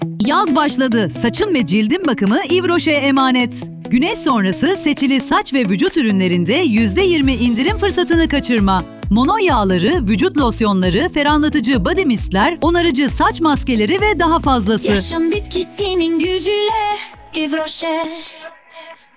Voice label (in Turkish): reklam; yves roche